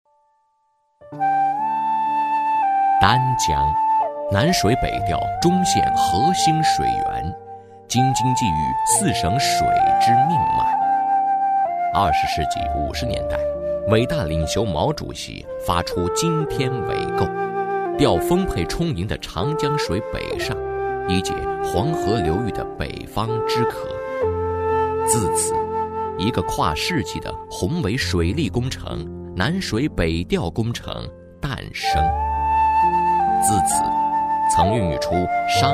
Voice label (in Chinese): 男 专题 博览会